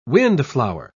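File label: "windflower", British English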